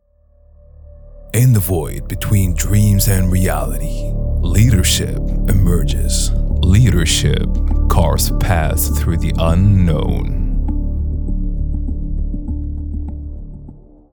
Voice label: voiceover